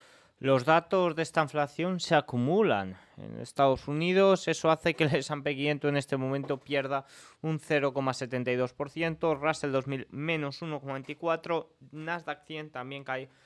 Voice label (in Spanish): radio; noticias bolsa